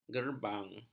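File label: "gerbang", Malay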